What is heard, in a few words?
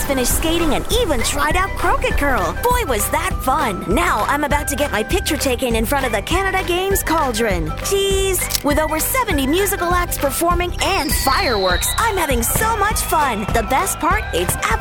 Radio Commercial Festival Promotion; Canada Games